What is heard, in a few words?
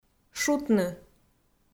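"шутны", Komi, female; kpv audio dict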